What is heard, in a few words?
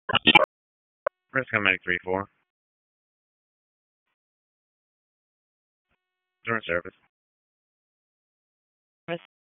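EMS Dispatch, p25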